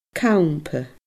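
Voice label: "campa", Scottish Gaelic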